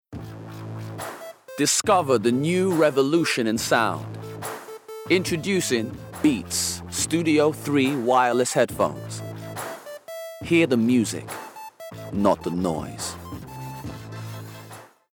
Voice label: VO Reel; Beats